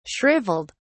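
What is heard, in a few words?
"shriveled", British English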